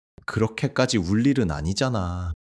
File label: shy